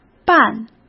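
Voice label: "拌", Mandarin Chinese; hsk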